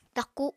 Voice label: "taku", Apatani